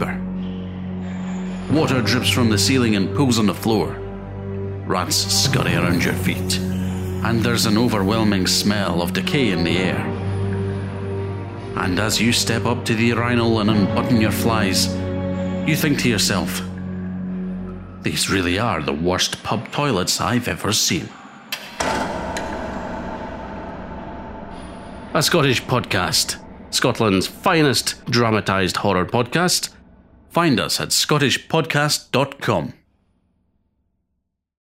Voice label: Promo; A Scottish Podcast